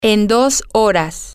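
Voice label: Spanish, female; in 2 Hours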